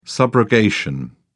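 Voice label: "subrogation", British English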